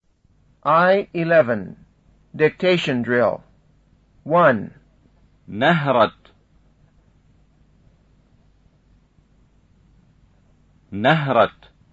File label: Levantine Arabic Pronunciation; FSI